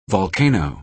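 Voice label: "volcano", American English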